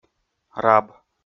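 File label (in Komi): "раб", Komi, male; audio dict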